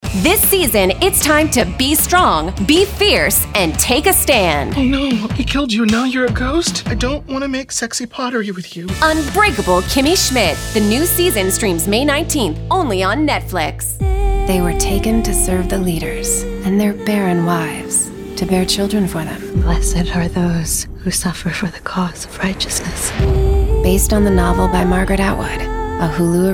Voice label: NETWORK PROMO